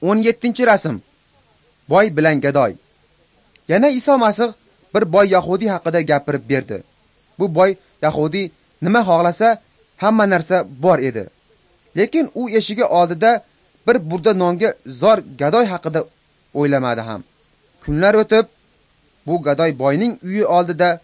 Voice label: uzbek northern